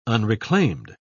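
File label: "unreclaimed", British English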